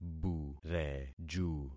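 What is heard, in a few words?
"bureju", concatenated syllables; Affixing experiment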